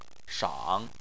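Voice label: "shang" tone 3, Chinese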